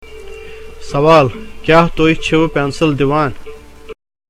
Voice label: Spoken Kashmiri, Question: Are you giving away pencils?